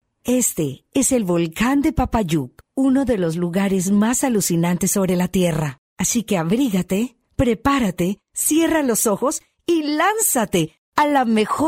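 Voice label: Spanish Latin America female sales; spanish americas voice over talent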